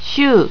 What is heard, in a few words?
"shuu", japanese